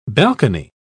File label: "balcony", pronounce